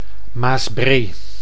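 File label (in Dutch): "Maasbree", Dutch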